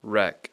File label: "wreck", English